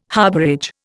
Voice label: "harbourage", Indian